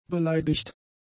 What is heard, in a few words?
"beleidigt", German; adjectives